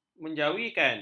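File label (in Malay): "menjawikan", Malay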